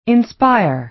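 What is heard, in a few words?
"inspire", American English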